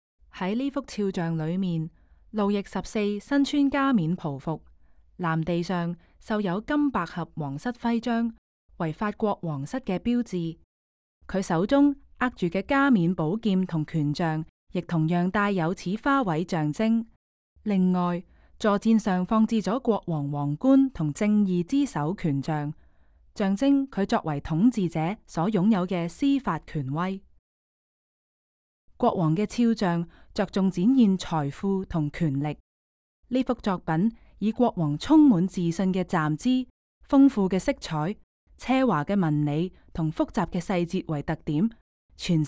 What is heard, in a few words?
Cantonese, audio guide; versailles